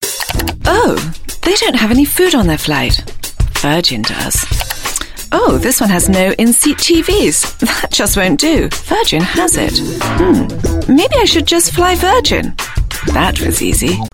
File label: UK English Airlines Commercial; Virgin